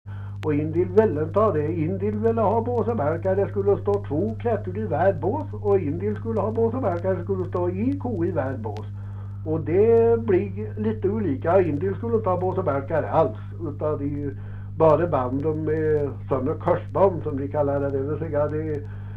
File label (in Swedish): Lerdal, dialekter; Folkminnen